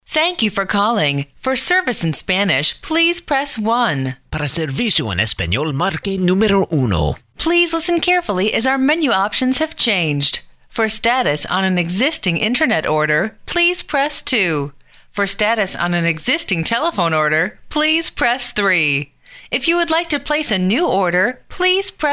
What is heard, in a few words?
main greeting, onhold message